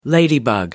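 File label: "ladybug", British English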